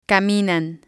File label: "caminan", spanish